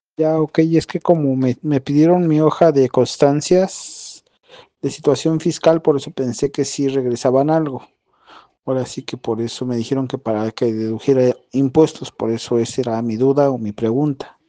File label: whatsapp ptt